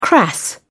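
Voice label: "crass", English, British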